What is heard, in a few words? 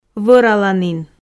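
"вӧраланін", Komi, female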